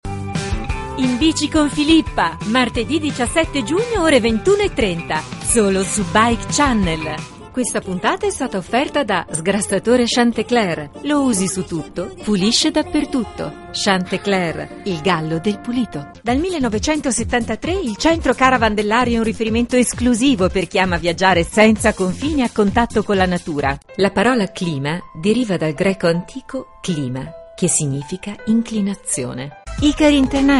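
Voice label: Italian female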